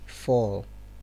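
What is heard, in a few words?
"fall", Nigerian Pidgin, male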